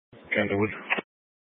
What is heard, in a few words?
Mesa County Sheriff Dispatch; scanner